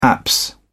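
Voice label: "apse", British English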